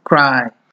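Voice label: "cry", Nigerian Pidgin, male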